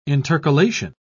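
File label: "intercalation", British English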